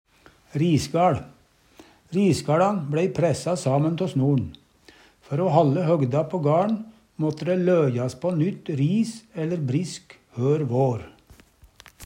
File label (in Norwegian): risgaL, Numedalsmål